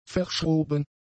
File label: "verschroben", German; adjectives